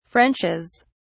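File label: "frenches", British English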